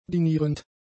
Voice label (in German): "dinierend", german; adjectives